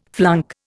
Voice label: "flunk", Indian

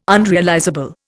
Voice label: "unrealizable", Indian

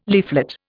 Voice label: "leaflet", Indian

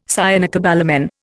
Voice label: "cyanocobalamin", Indian

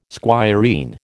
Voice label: "squireen", American

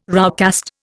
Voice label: "roughcast", Indian

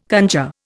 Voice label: "conjure", Indian

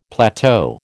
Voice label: "plateau", American

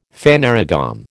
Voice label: "phanerogam", American

